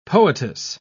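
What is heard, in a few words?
"poetess", British English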